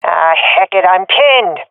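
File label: pinned, swat female; vo; Counter Strike Model Pack; CS:GO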